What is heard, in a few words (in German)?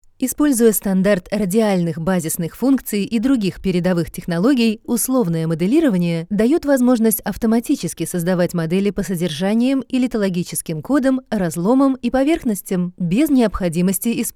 RUS Kommentar Freundlich